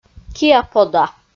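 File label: "киа-пода", Komi, female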